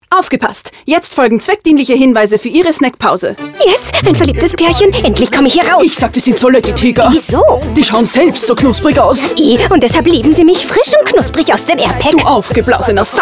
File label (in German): Werbung (DE); „Kellys“